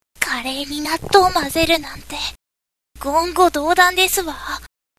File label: girl